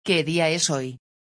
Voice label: numbers, spanish; beginner level phrases